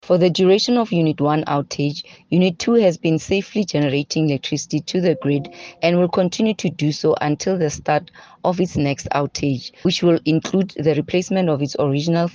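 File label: English; Koeberg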